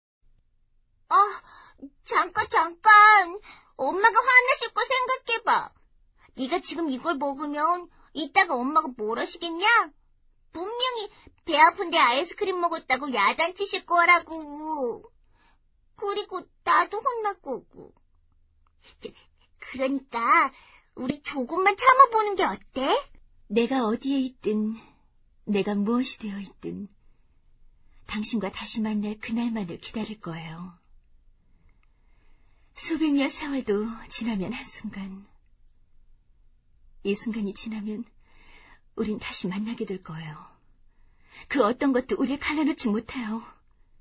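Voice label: Korean, female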